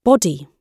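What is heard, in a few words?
"body", British English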